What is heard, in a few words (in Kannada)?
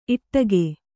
"ಈಡಿಗ", Kannada